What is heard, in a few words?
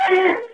Lo-Fi Jibber Jabber